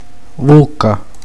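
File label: "bocca", Italian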